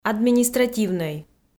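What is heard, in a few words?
"административнӧй", Komi, female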